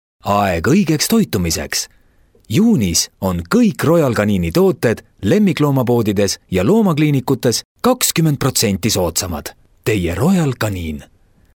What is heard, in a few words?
Estonian male